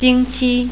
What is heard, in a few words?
"week", Mandarin